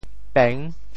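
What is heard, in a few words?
"peng6", Teochew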